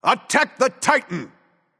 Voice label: vox attack m; smite; heimdallr